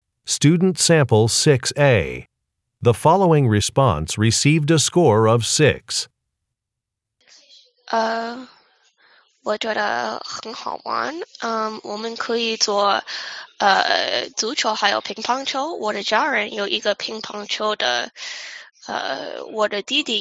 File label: chinese conversation